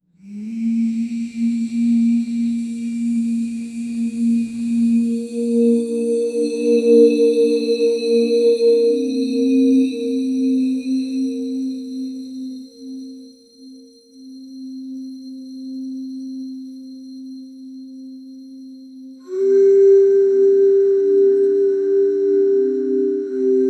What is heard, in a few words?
style deep meditative, deep voice